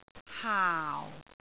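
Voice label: "haau4", Cantonese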